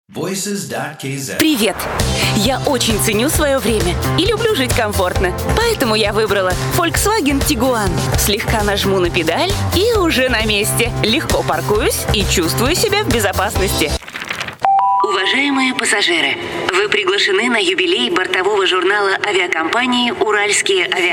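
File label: Russian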